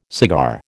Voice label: "cigar", American

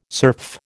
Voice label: "serf", American

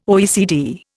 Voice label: "OECD", Indian

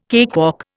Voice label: "cakewalk", Indian

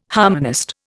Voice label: "harmonist", Indian

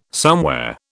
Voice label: "somewhere", British

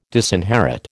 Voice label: "disinherit", American

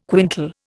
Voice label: "quintal", Indian

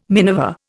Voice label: "miniver", Indian

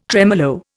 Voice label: "tremolo", Indian

